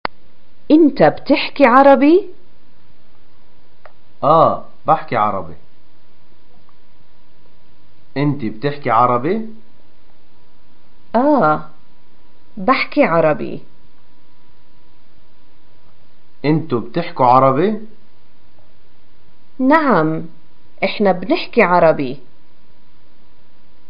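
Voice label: do you speak arabic, Palestinian Arabic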